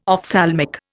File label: "ophthalmic", Indian